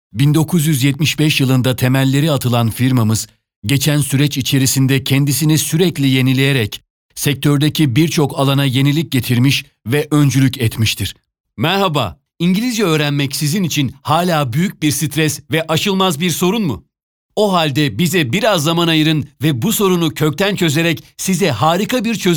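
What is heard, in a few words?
Turkish male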